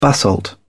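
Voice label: "basalt", British English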